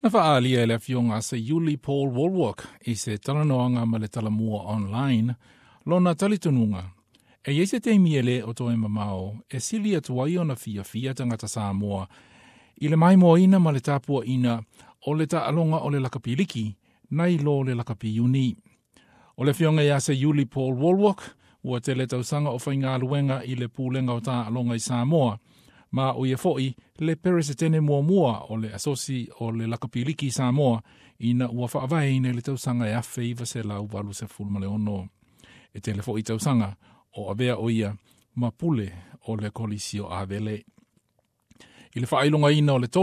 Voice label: samoan; First rugby league international test match in Samoa; SBS Samoan; Samoa Rugby League